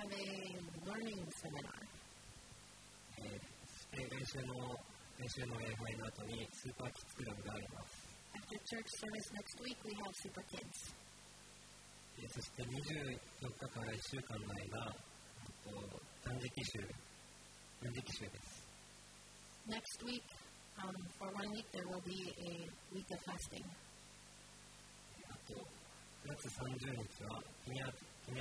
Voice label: announcement; sunday message 2023